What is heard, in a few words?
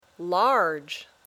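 "large", English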